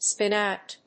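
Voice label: "spin out", English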